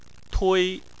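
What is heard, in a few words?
"tui", Chinese, first tone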